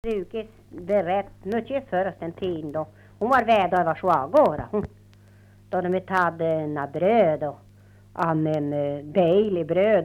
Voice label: dialekter; Folkminnen

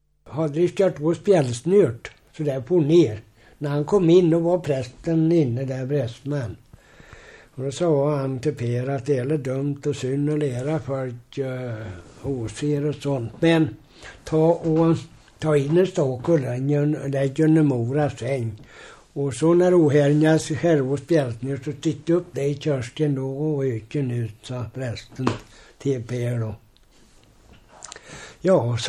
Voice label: Korsberga Finnerödja, dialekter; Svenska sagor; Folkminnen

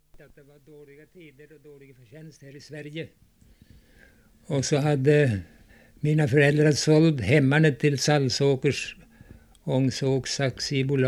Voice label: Ullånger, dialekter; Folkminnen

